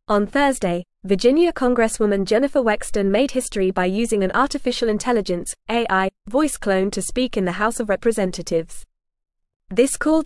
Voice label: English Upper Intermediate FAST Reading; Wexton Makes History with AI Speech in Congress; English Newsroom

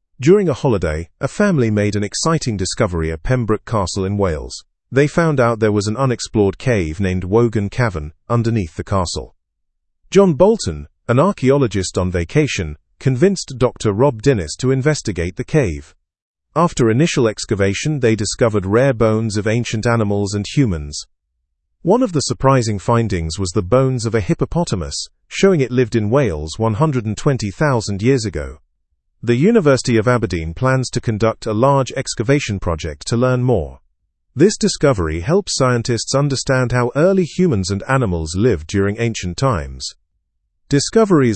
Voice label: english through news lower intermediate; family s holiday leads to ancient discovery

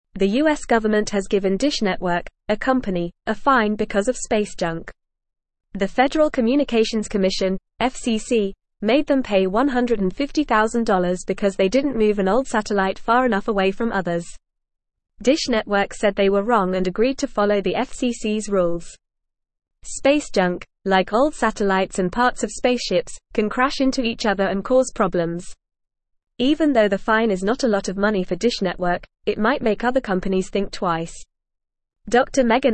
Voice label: English Lower Intermediate FAST Reading; US Government Fines Dish Network for Space Junk; English Newsroom

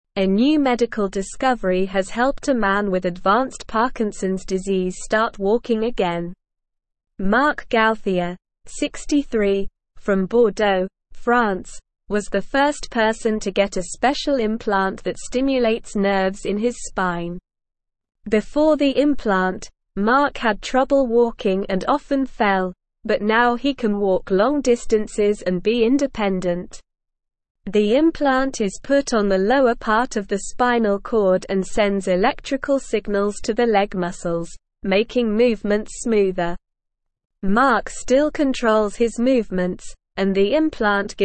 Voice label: Upper Intermediate SLOW Reading, English; English Newsroom

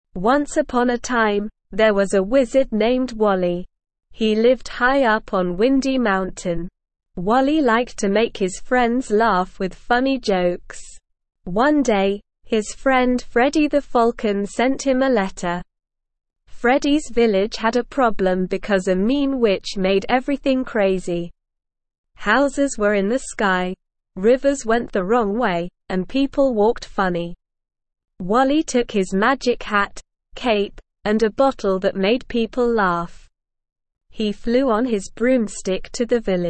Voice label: ESL Short Stories for Kids Beginner SLOW Reading; The Wizard of the Windy Mountain

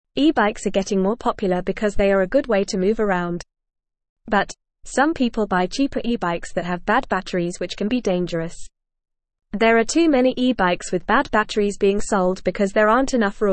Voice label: English Lower Intermediate FAST Reading; E bikes Safe and Fun with Good Batteries

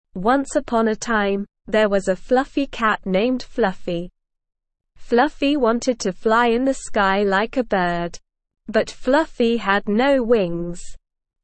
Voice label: ESL Short Stories for Kids Beginner SLOW Reading; Fluffys Fantastic Flight

